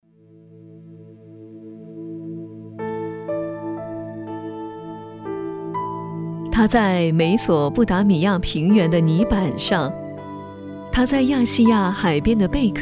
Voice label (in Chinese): 女 纪录片 货币